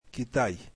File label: russian China